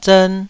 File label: "zern2", Taiwanese